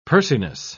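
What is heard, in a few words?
"pursiness", British English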